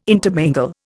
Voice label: "intermingle", Indian